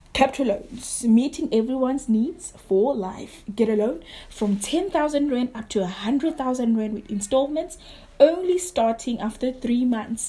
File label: Loans VO; Captro